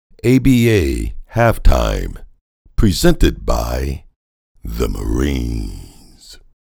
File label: Halftime Marines Strong Determined